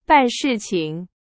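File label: Chinese, example sentence; hsk2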